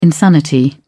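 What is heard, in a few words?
"insanity", British English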